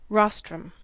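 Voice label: "rostrum", US English; pronunciations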